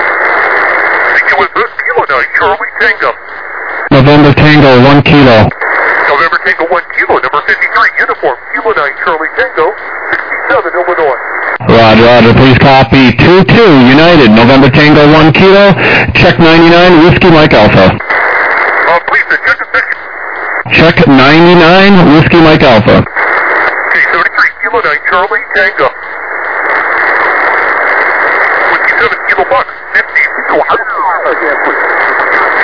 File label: USB 21MHz